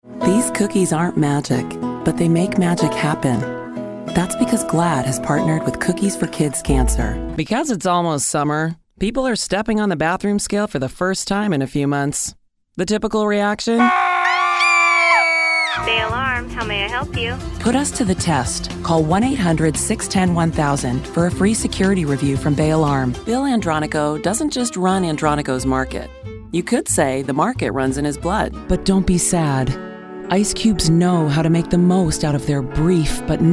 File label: Commercial